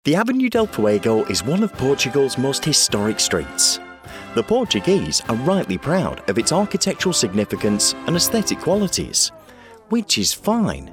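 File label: Commercials Reel, With Zaps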